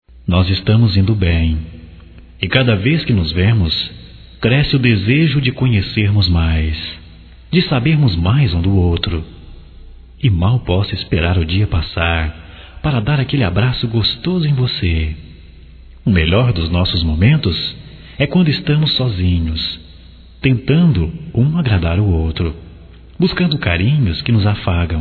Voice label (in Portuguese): ROMANTICA GERAL, Românticas masc